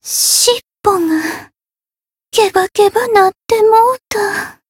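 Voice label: win barely, Japanese; hoozuki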